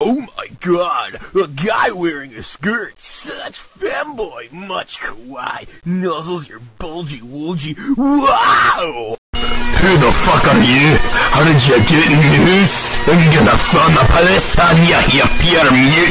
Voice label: scottish femboy